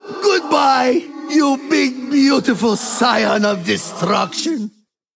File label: Male Ethereal Evil Emote Threaten